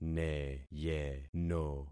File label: "neyeno", concat syllables; Affixing experiment